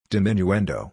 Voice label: "diminuendo", word